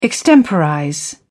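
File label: "extemporize", British English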